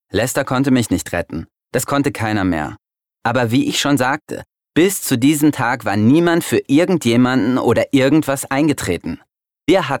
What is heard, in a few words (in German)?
Erzählung; LOFT VOICES